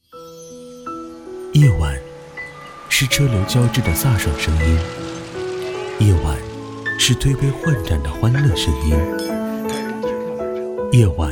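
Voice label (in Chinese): 男【旁白】; 小强爱旅行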